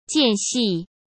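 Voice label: "间隙", Mandarin Chinese; chinese-flashcards; hsk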